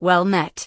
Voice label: Human Female Warrior NPC Greeting